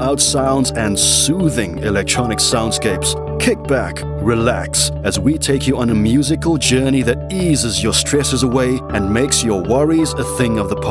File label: Chilled Radio Intro; voice overs